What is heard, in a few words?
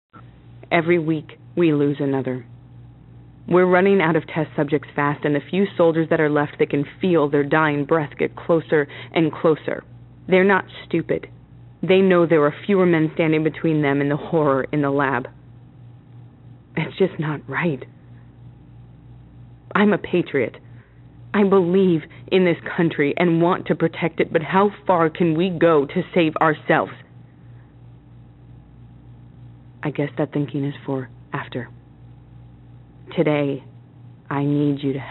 Cell Call